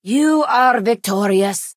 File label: Khajiit female concede